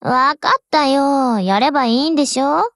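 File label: OPERATION BEGIN, Japanese, Voice; GF Alarm; M249SAW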